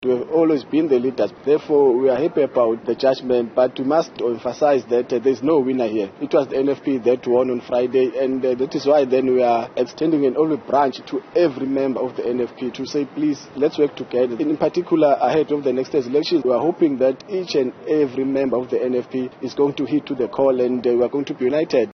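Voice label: English; Elections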